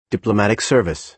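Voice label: "diplomatic service", American English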